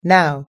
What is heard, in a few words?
now, us english